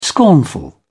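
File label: "scornful", British English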